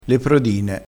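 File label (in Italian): "prodine", vocabolario dialettale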